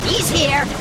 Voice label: grunt; h3dialogue; staten